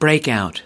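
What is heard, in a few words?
"breakout", US English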